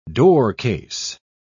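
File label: "doorcase", British English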